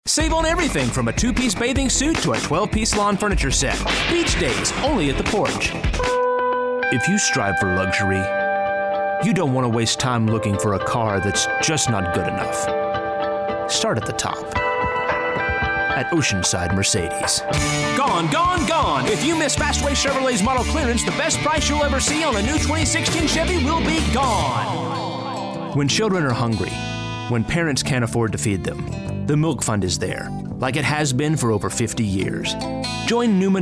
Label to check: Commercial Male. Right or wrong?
Right